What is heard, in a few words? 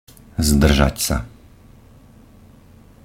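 "zdržať sa", Slovak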